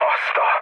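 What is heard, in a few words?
Hungarian trick mood low, speech; War Thunder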